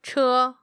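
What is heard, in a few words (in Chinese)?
"che", Mandarin Chinese, female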